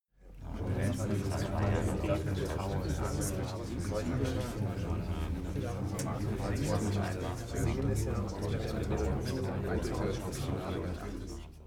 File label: talk german neutral quiet short